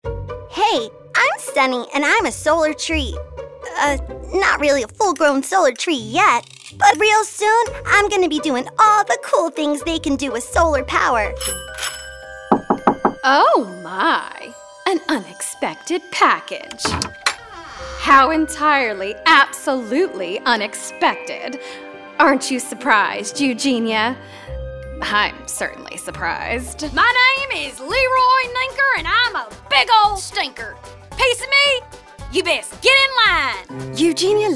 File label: Animation